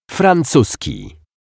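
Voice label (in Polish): "francuski", Polish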